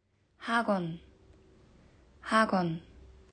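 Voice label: "塾", Japanese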